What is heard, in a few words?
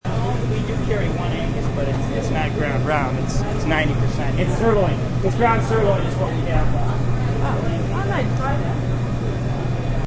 Grcy Talk, Man says; Grocery Store Talking; Stores And Mall